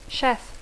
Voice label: "chef", English; cuiner; xef; cap de cuina